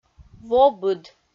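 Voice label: "вобыд", Komi, female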